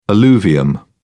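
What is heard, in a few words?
"alluvium", British English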